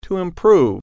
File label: "improve", English